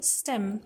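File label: "stem", English; grade5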